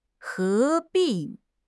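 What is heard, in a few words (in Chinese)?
"合并", Mandarin Chinese; HSK6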